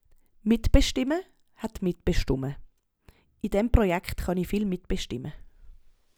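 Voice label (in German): mitbestimme, Swiss German; Wortschatz Kapitel 8; Kapitel 8 Hauptwortschatz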